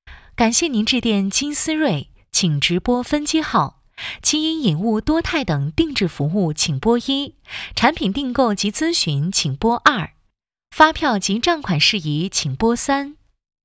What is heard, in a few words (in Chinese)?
总机提示音